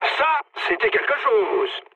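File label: French trick mood med; War Thunder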